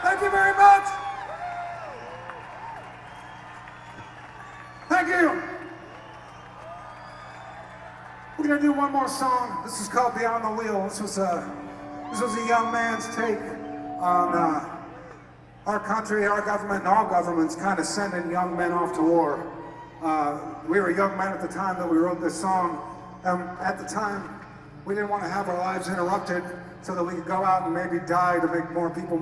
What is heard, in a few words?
Chatting; Welcome To Rockville; Jacksonville, FL